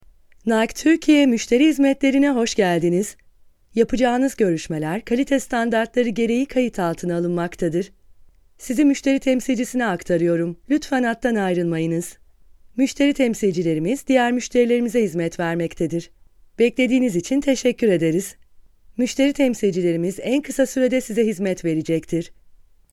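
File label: TR F corporate, Turkish, female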